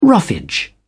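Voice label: "roughage", British English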